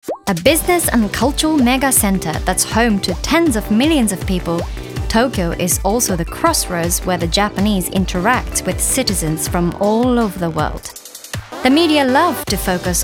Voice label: Promo English Upbeat